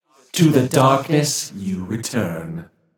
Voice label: taunt directed; Smite; Galaxy Maverick Ne Zha; nox